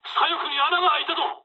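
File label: Japanese left wing mood low; War Thunder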